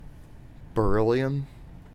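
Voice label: "beryllium", American English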